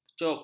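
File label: "kecup", Malay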